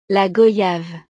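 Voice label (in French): "la goyave", French; frutas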